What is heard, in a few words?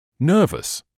"nervous", English